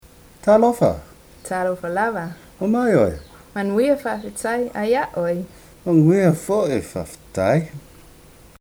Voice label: greeting people, samoan only